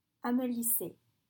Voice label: "amollissait", French

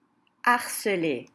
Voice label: "Harcelée", French

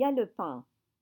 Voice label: "galopin", French

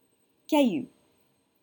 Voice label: "cailloux", French

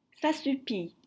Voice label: "Sassoupit", French

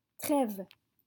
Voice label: "trêves", French

